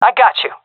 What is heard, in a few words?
covering friend, fem; vo; agents; Source Model Packs; Counter Strike Model Pack; CS2